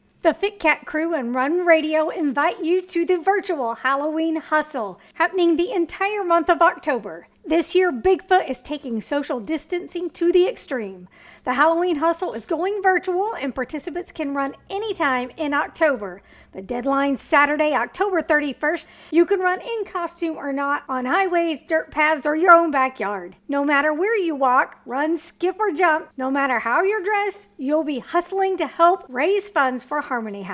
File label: Promo; Halloween Hustle 2020